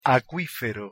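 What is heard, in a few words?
pronunciation "acuifero", Spanish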